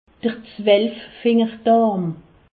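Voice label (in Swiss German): "zwelffingerdarm", Alsace, Haut Rhin; medecine; duodenum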